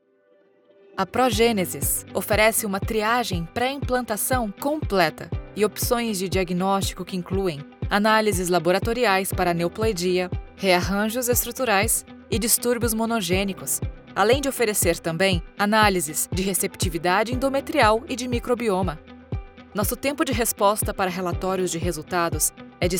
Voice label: medical fertility event presentation confident clear female; PROGENESIS; Brazilian